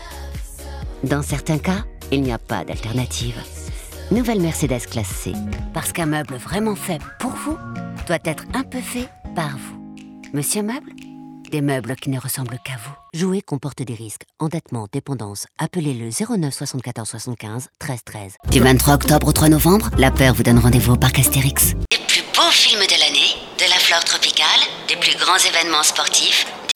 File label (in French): voix off